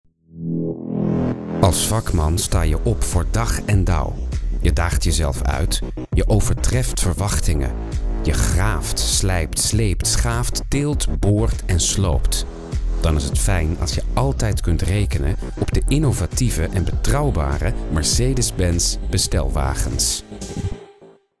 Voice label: commercial; Mercedes